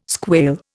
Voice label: "squail", Indian